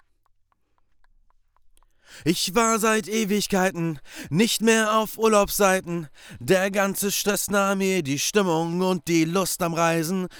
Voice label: voice Kabine